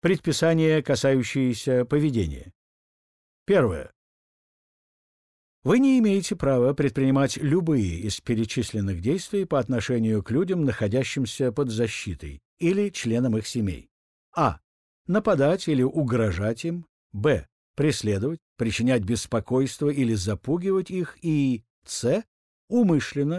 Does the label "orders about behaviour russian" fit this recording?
Yes